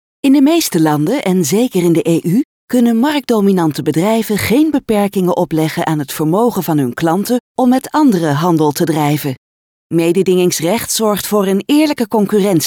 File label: Elearning competition law